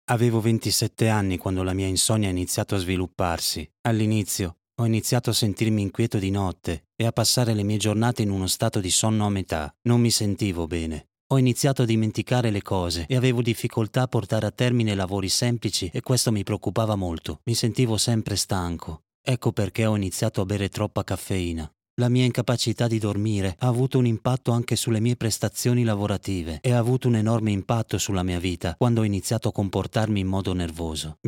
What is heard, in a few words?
FAST SPEECH NARRATION